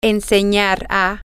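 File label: to Teach To, Spanish, female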